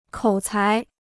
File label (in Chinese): "口才", Chinese